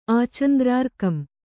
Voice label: "ಆಚಂದ್ರಾರ್ಕಂ", Kannada; ācandrārkam; lasting for ever.

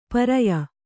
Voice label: "ಪರ್ಯ", Kannada

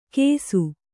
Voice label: "ಕೇಸು", kannada

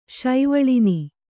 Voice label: "ಶೈವಳಿನಿ", kannada

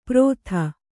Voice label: "ಪ್ರೋಥ", Kannada

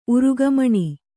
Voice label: "ಉರುಗಮಣಿ", Kannada

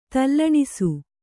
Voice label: "ತಲ್ಲಣಿಸು", Kannada; tallaṇisu; = ತಲ್ಲಣಗೊಳ್ಳು.

